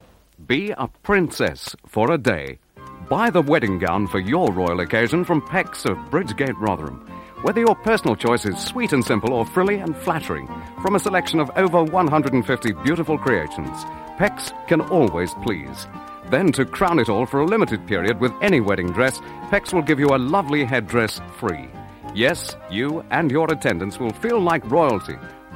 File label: Radio Advert, Bridal Shopping; Radio Hallam; Pecks